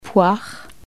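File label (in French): "poire" French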